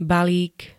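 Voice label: "balík", Slovak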